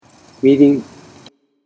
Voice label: "mirin", Japanese

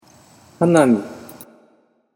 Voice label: "hanami", Japanese

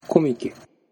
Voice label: "komike", Japanese

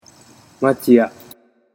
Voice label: "machiya", Japanese

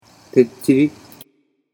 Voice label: "tecchiri", Japanese

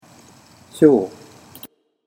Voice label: "shou", Japanese

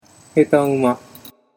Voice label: "hetauma", Japanese

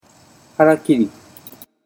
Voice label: "harakiri", Japanese

